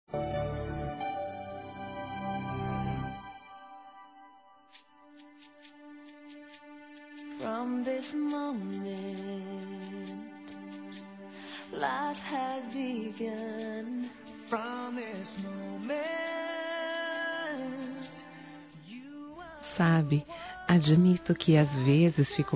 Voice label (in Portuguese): ANIV. ROMANTICO F; Aniversários; fem